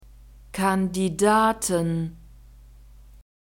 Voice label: "kandidaten", german